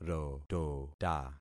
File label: "rotota", concat syllables; Affixing experiment